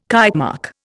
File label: "Kitemark", Indian